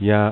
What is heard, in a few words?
"Yes", es, Adult, Male; nl